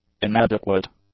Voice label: "inadequate", English; adjectives

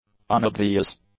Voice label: "unobvious", English; adjectives

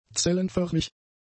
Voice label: "zellenförmig", German; adjectives

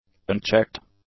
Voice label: "unchecked", English; adjectives

